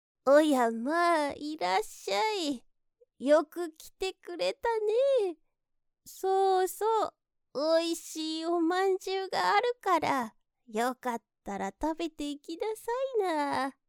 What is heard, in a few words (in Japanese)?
優しいおばあちゃん